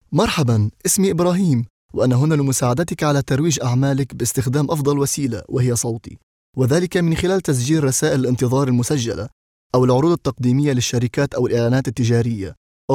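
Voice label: Arabic Lebanese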